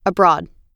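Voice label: "abroad", American English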